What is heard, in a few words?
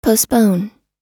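"postpone", American English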